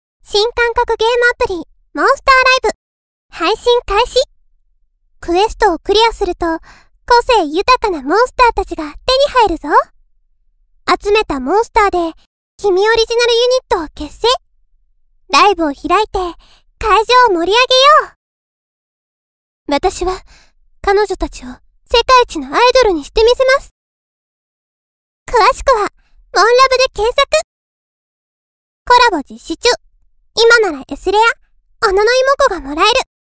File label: narration